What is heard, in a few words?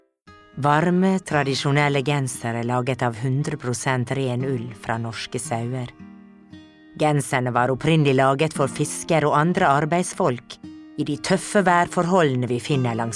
Norwegian, Scandinavian, Female, 40s-50s, voiceover